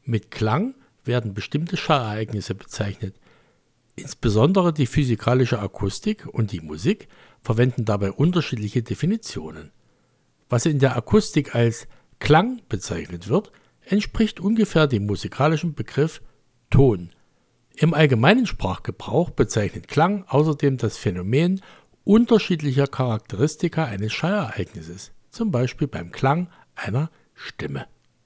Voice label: Reference Microphone